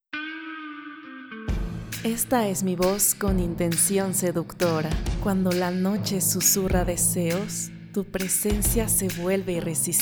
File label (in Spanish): Seductora Musicalizado, locutora